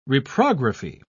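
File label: "reprography", British English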